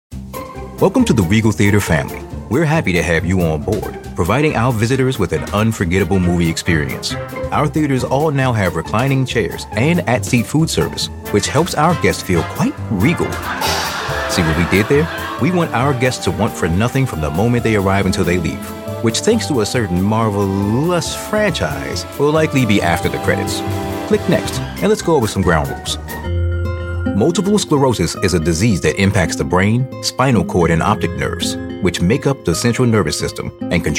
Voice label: american voiceover elearning